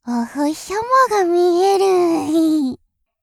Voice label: お星さまが見える, 寝言

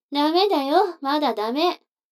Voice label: 女の子 台詞

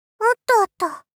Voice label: おっとっと！ 幼女×高音域

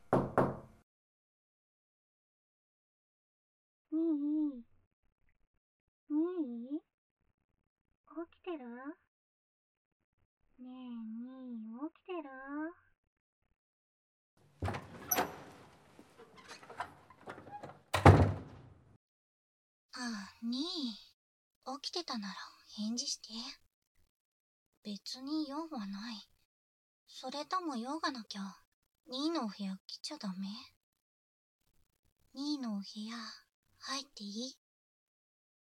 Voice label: オープニング 仲良くゲーム（バイノーラルマイク収録 効果音あり）, ASMR

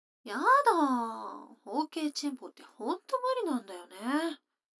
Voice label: 女の子台詞

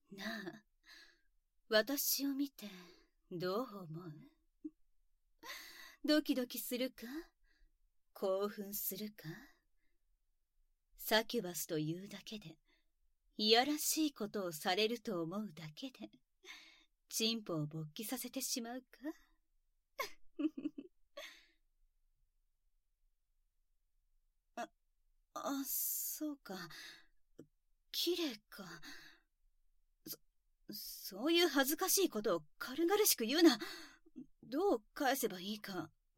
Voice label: オナニー指示と耳舐めと（効果音なし; サキュバスの愛情表現は気持ちいい搾精アイ

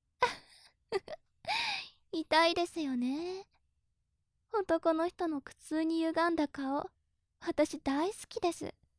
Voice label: お仕置き中音声, ASMR

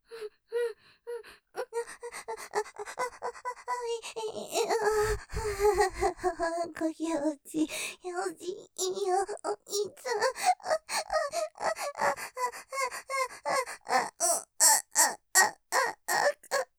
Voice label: 絶頂寸前, ASMR; おまんこ気持ちいいよぉ; 身を委ねて; 立体; ステレオ; ループで楽しむ！シェフの気まぐれ抜き抜きコース

